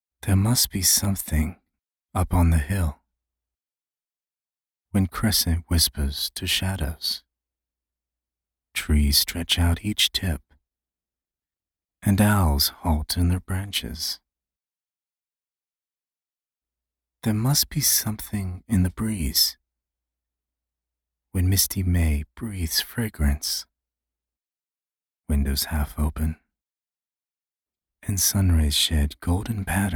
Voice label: 深情朗诵

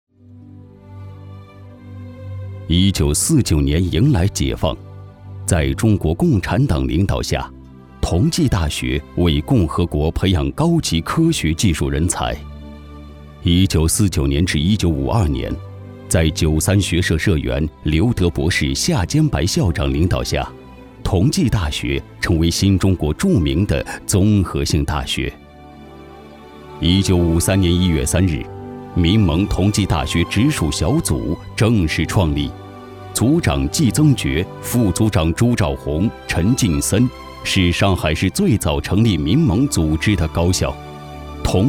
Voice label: 专题 大气解说; 同济大学

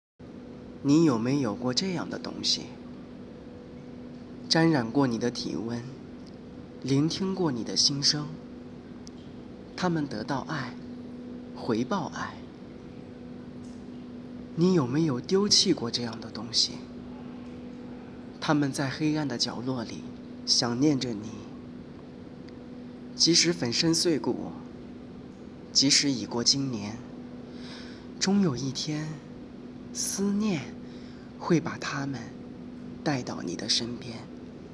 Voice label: 旁白

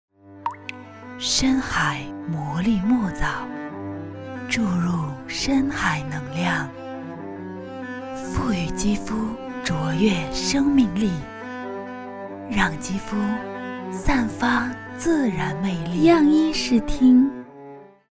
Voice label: 明媚 广告 面膜; 深海魔力墨藻面膜

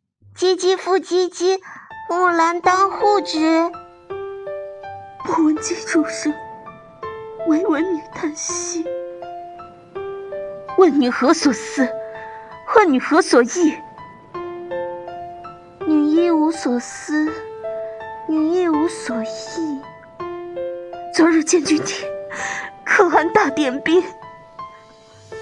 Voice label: 多声线读木兰辞

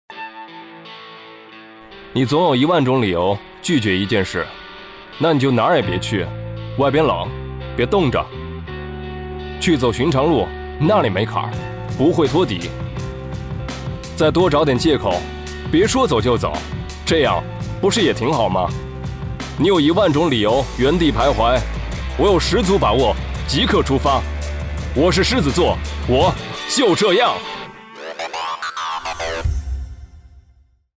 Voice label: 汽车广告

